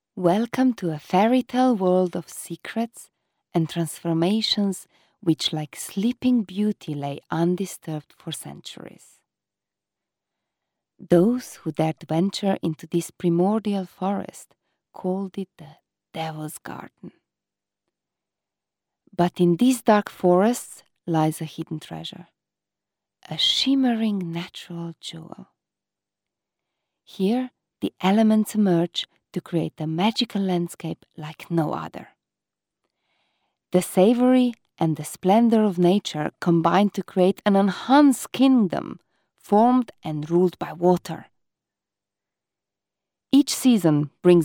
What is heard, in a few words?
female slovenian english with slovenian accent narration; devine voices